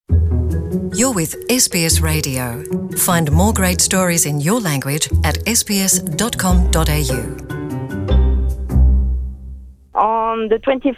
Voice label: english; Cassowary carnival: Queensland town celebrates a famous flightless bird; SBS NITV Radio